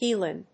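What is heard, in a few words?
"heal on", English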